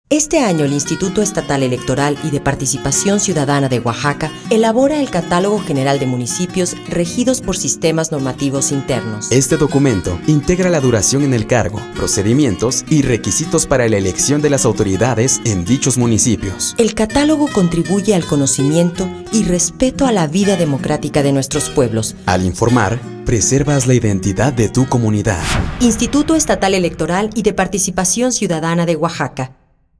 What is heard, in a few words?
Spot; IEEPCO; catalogo